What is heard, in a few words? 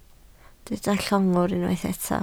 "llongwr", Welsh